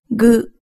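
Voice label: "gu", Japanese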